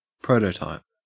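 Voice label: "prototype", Australian English; pronunciations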